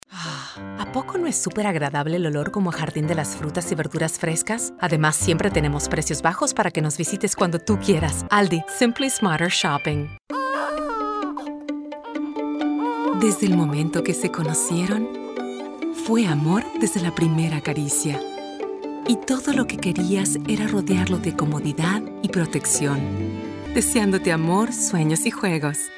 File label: latin spanish showreel